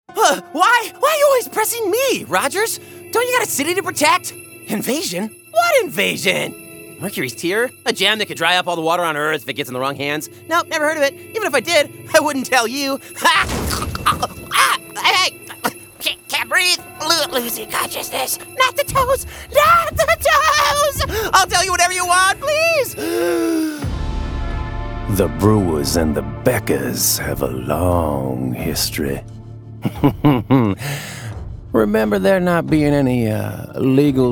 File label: animation